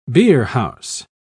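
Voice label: "beerhouse", English, British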